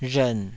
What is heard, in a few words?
"rhern3", taiwanese